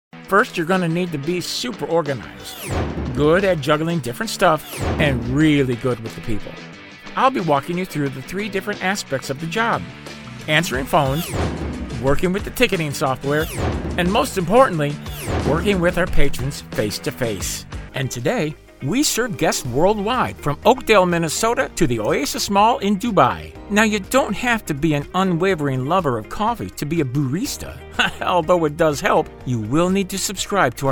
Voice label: eLearning